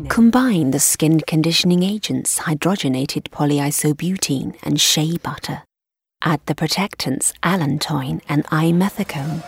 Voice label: English F Medical, accent of italy